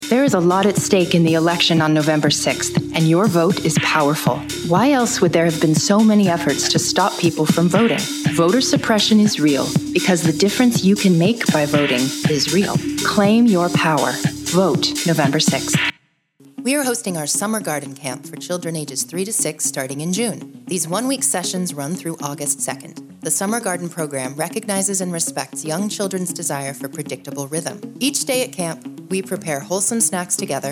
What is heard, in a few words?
radio vo